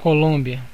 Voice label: "colombia", pt BR; gcompris; geography